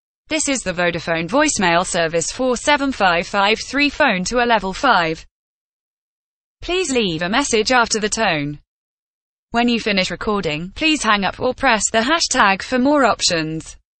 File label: female, UK